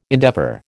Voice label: "endeavour", American